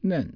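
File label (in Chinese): "nen", Chinese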